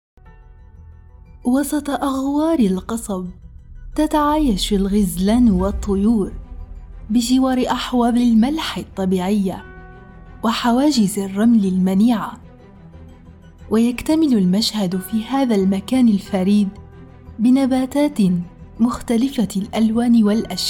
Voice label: 阿拉伯语女 外语 小语种 科技

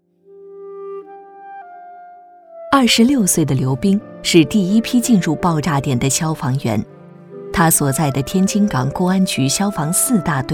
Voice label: 专题 人物 大气抒情人物

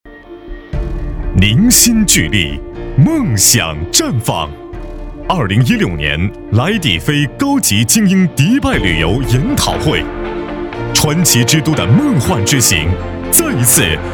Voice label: 男国 专题 企业 力度; 迪拜旅游

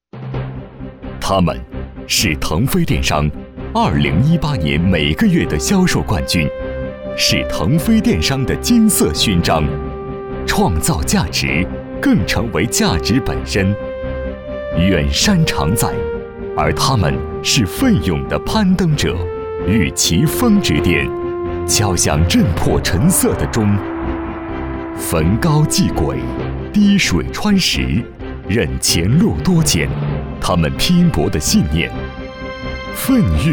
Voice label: 男国 专题 颁奖 颁奖词; 2019年会颁奖词

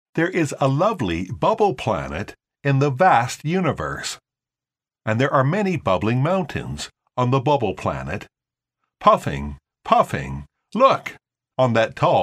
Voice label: 美式英语 动画, 外语; 宇宙冲冲队

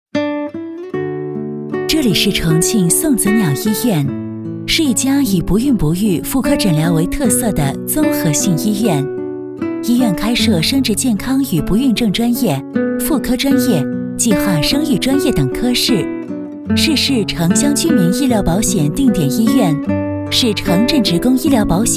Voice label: 女 专题 医院 温馨专题 医院 亲切; 送子鸟医院

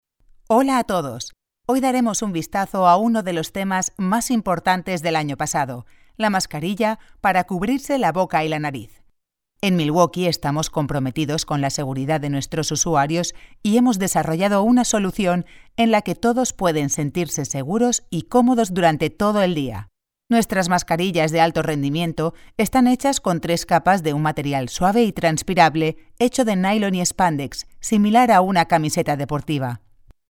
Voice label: 西班牙语女 外语 小语种

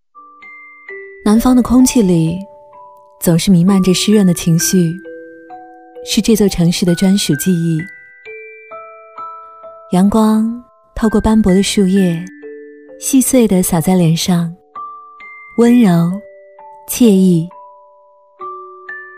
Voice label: 独白 自然; 宣传片 旅游; 上杭景区; 独白上杭景区

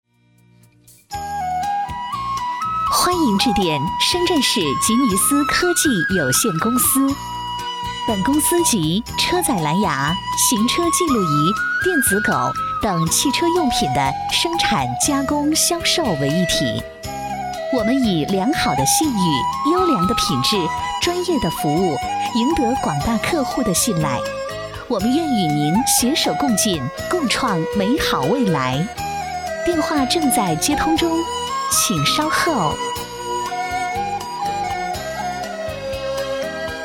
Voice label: 彩铃; 深圳市吉尼斯科技有限责任公司

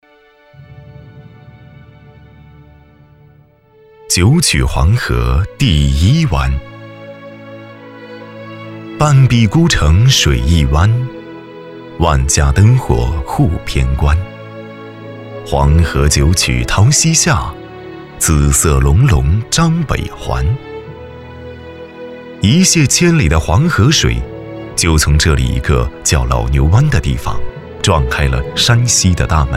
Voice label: 男国 宣传片 旅游 旅游宣传 浑厚

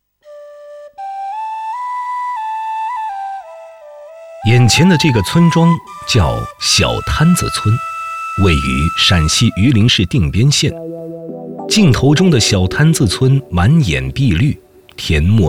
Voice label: 男国 专题 人物 讲述; 李守林

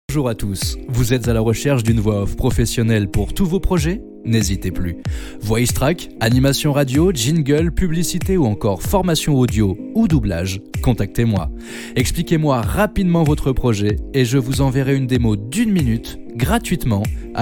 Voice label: 法语男, 外语, 小语种

